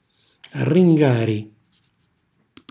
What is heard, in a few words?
"rringari", Calabrese; cucina calabrese